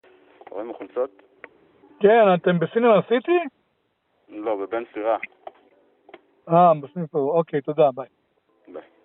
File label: Call recording